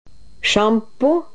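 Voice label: "sjampo", Norwegian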